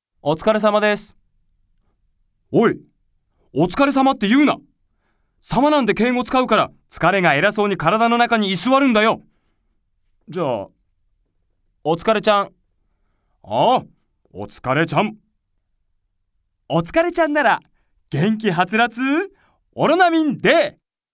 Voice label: 男2人掛け合い

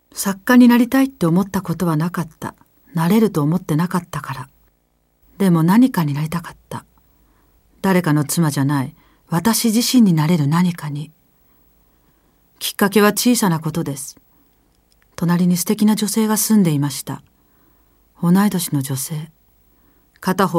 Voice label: 朗読

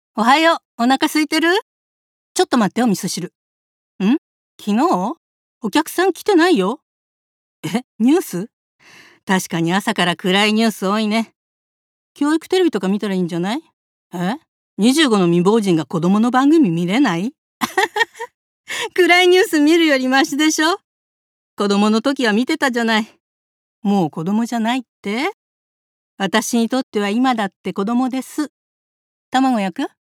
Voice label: 戻った娘をさりげなく気遣う母

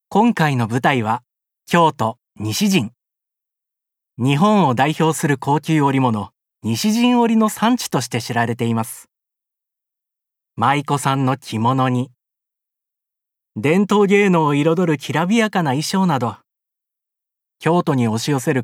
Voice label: 地域紹介番組ナレ

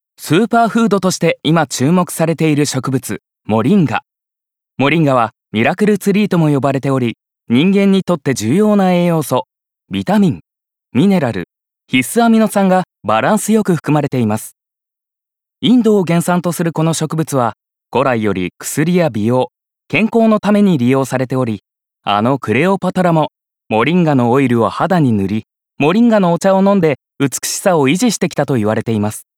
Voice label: 情報番組ナレ

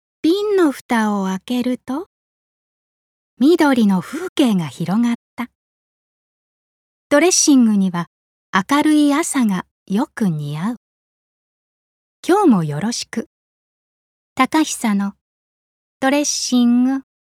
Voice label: 調味料CM

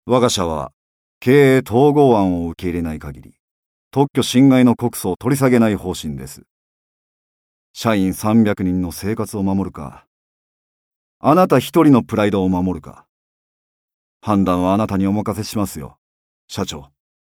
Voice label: 企業幹部, 岡山弁

